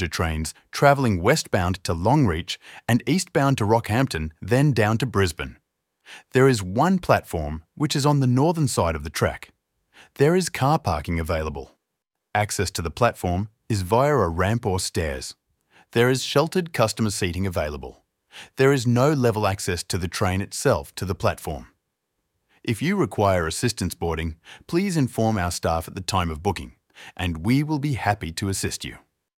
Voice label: Blackwater Railway Station, Station map audio description; TRAX; Overview Address 20/36 Capricorn Highway